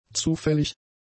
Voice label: "zufällig", German; adjectives